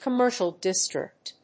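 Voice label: "Commercial district", English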